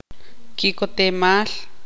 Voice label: tzutujil; ser feliz

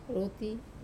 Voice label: "rut" to hold, Wamesa

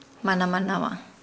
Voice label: Nukuoro; breathing

